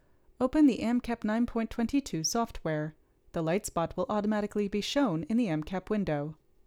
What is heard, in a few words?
Gong Voiceover